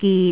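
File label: "git6", Cantonese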